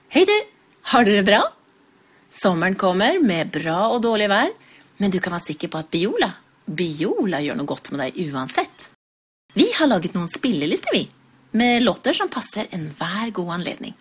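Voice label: Norwegian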